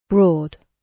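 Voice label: "broad", British; Business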